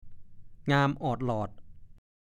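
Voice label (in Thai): "งามออดหลอด" ภาษาโคราช; งามบริสุทธิ์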